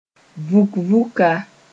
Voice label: "vũk-vũka", moore; in a orderly manner